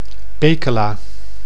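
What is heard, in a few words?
"Pekela", Dutch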